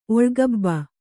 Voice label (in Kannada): "ಒಳ್ಗಬ್ಬ", Kannada